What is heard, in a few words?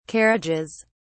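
"carriages", English, British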